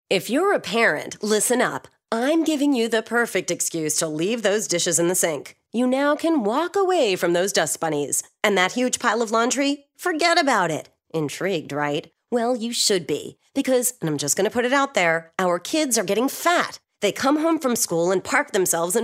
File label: Conversational